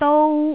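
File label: "dou1", Cantonese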